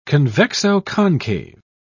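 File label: "convexo-concave", British English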